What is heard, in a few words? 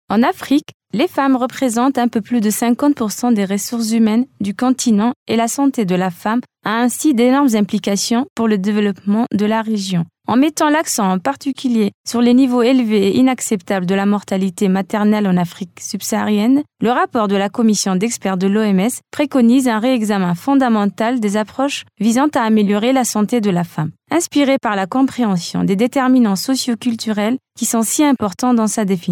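French, African, female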